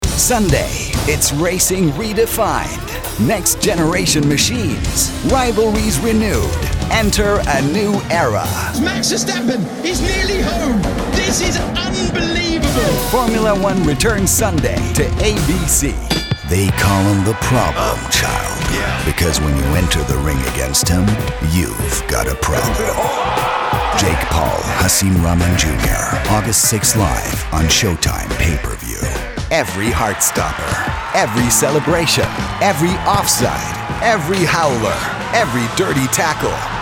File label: SPORTS ENGLISH